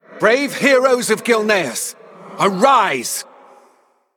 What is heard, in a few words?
Male Ghost